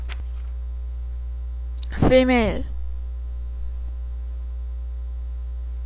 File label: female